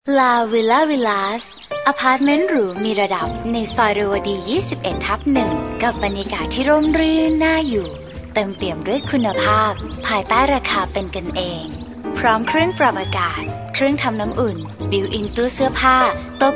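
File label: thai female voice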